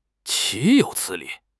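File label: "岂有此理", Mandarin Chinese; HSK6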